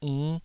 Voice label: "inn5", taiwanese syllables